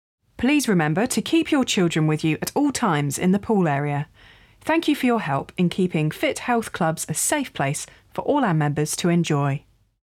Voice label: Announcements